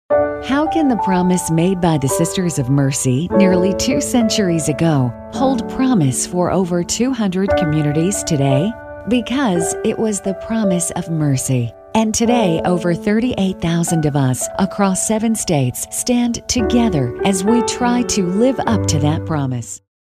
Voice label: thoughtful soft spoken caring